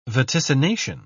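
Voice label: "vaticination", British English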